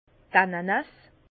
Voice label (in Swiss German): "ananas", Bas Rhin